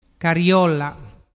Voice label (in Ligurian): "cariolla", dialettu